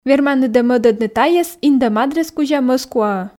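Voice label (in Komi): верманныд-ӧ мӧдӧдны тайӧс индӧм адрес кузя мӧскуаӧ, Komi, female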